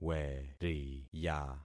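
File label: "weriya", concatenated syllables; Affixing experiment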